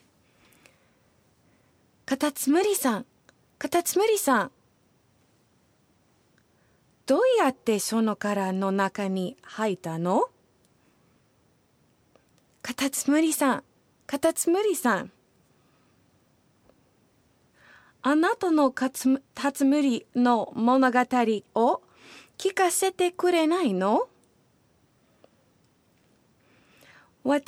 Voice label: Japanese female; Mr. Snail